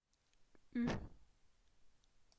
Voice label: son y, French